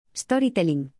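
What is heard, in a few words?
"storytelling", English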